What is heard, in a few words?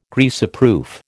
"Greaseproof", American